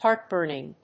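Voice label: "heartburning", English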